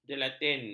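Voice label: "jelatin", Malay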